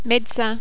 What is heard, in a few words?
"medecin", French